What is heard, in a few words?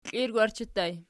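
"klirgwarchi" ergative plural, Archi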